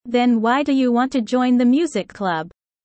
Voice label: low; studying abroad